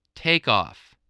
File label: "takeoff", spelling